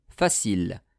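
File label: "facile", French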